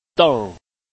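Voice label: "deng", Chinese, tone 4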